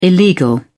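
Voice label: "illegal", British English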